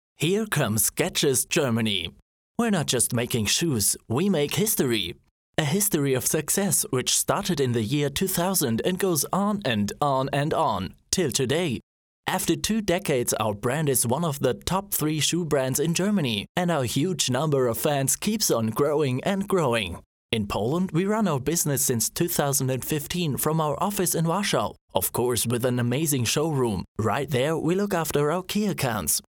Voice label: english promos

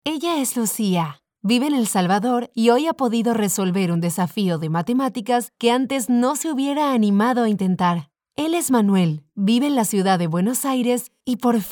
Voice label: spanish latin american narration; Soy una joven locutora argentina, con experiencia en teatro escénico y musical

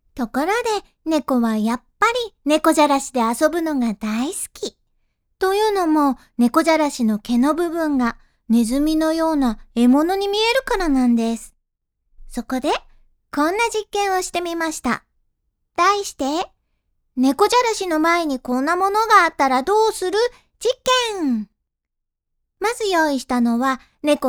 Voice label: japanese video games

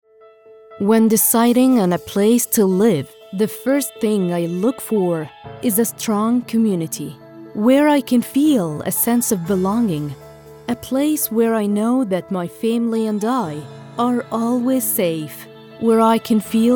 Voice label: english

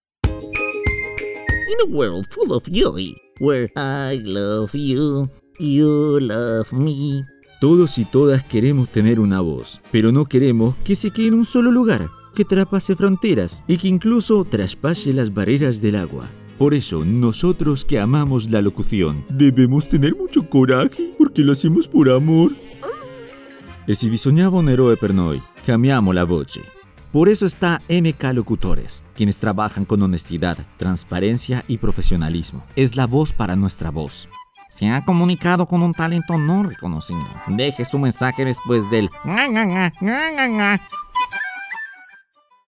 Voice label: spanish latin american animation; colombian spanish

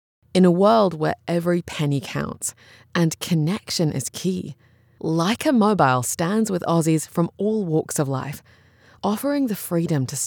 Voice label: english australian audio guides

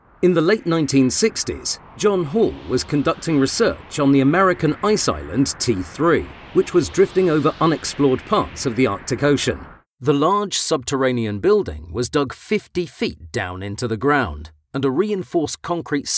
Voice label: english british documentaries

